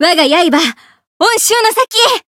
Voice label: limitbreak, jp; tsukiha

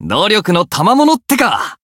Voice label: voice jp

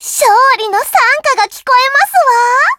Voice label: win, jp; suzette

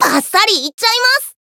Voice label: Voice encount, jp; phiro

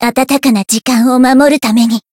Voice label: variable chant jp

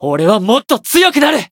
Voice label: Voice growth, jp

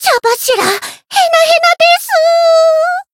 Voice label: encount fear, jp; myungwha

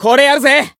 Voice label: heal, jp

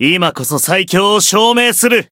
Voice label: Voice chant, jp

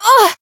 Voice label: Voice damaged; isuka

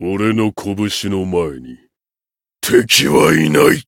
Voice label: win, jp

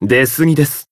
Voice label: heal jp; shion

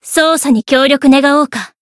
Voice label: Voice encount, jp; isuka

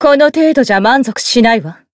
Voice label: Voice growth, jp

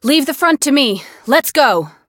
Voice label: Voice variable chant

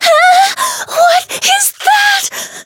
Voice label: Voice encount fear; melody

